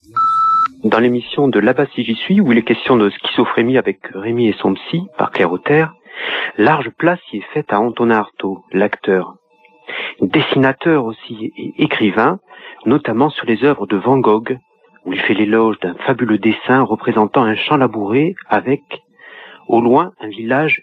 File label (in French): repondeur; Antonin Artaud